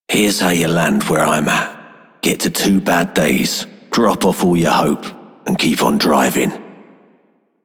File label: Male Repo, Male One, en; Dialog; Warframe; Solaris Venus